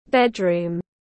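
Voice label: "Bedroom", English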